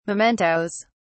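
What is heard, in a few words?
"mementoes", British English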